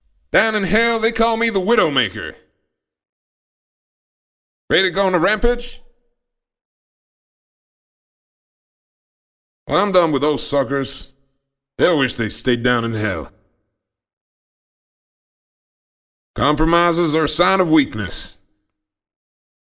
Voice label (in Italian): voci videogioco